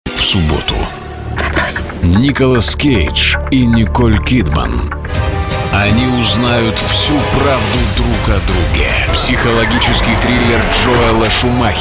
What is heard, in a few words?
Promo